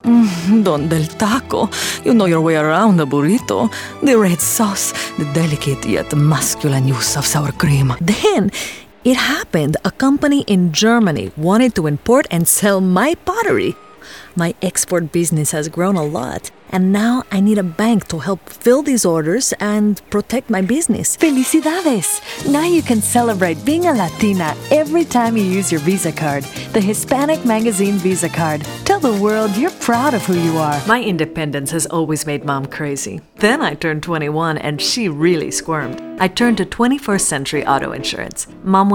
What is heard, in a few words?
spanish accented english